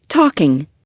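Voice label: "talking", English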